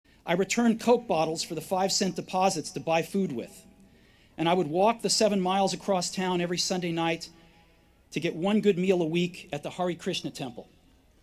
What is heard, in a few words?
Commencement Address; Steve Jobs; 2005; Stanford